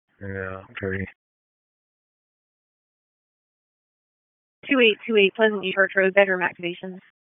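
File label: Dispatch 1, P25; wake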